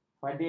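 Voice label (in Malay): "fadil", Malay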